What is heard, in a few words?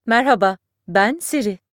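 Turkish; iOS Sounds; elif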